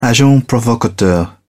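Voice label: "agent provocateur", British English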